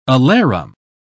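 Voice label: "alarum", British English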